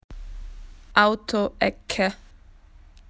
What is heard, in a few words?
car corner, spoken AU; PictureDictionary